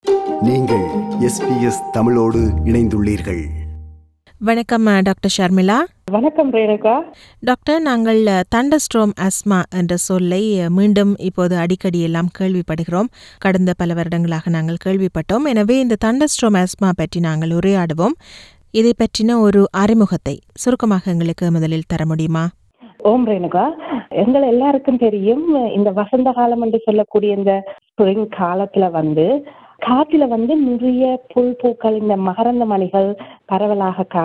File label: tamil; What you need to know about 'Thunderstorm Asthma'; SBS Tamil